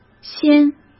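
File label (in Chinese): "掀", Mandarin Chinese; hsk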